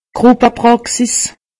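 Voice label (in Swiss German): "gruppepraxis", Haut Rhin; medecine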